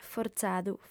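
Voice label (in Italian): "forzadu", lingua sarda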